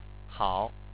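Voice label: "hao", Chinese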